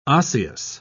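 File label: "osseous", British English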